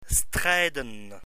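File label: "strijden", Dutch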